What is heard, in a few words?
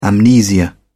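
"amnesia", English, British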